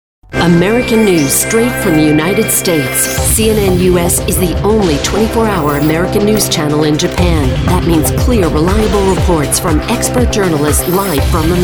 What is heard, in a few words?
promo, promos women